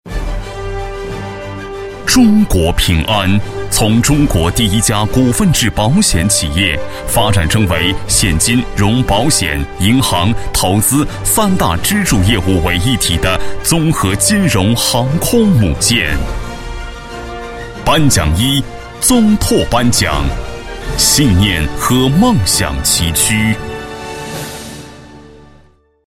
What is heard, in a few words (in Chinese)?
男 颁奖, 国语男声配音; 中国平安